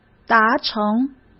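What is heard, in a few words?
"达成", Mandarin Chinese; chinese flashcards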